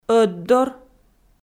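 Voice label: "ӧтдор" Komi, female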